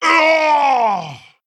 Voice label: Female Quilboar Death